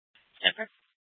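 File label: police dispatch, Scottsdale PD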